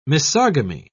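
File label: "misogamy", British English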